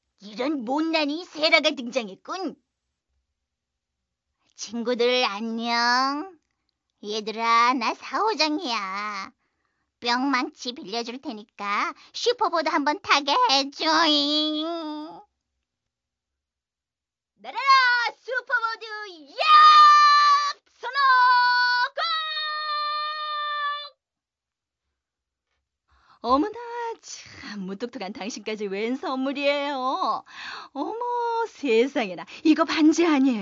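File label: Korean, female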